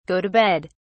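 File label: "go to bed", British English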